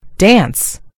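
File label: "dance", American English; gcompris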